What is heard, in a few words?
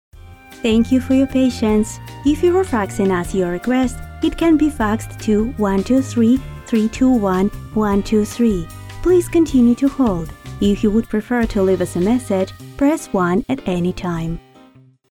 On-hold English w music